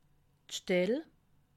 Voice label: "stell", alsace

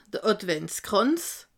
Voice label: "adventskranz", alsace

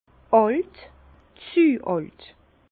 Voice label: "alt", Bas Rhin; vin; vieux trop

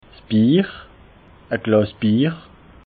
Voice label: "bier", alsace

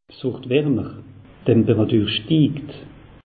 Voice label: "warmer", alsace; meteo

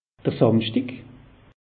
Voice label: "samschdig", alsace; meteo

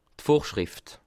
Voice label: "vorschrifft", alsace

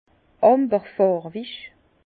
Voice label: "amberfarwig", Bas Rhin; vin; ambre

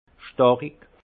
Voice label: "starig", alsace; fort; vin

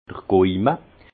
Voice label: "gaume", alsace; vin; palais

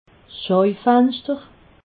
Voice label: "schaufenschter", alsace

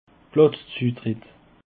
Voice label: "platzzutritt", alsace

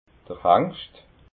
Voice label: "hangscht", alsace

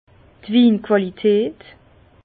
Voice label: "winqualitat", Bas Rhin; vin; qualité du raisin

